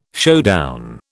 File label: "showdown", British